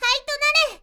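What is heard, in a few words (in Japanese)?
magi girl "haitonare", Japanese; magi syozyo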